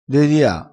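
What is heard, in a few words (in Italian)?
"dedia", dialettale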